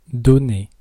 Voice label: "donner", French; present